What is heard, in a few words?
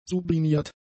"sublimiert", German; adjectives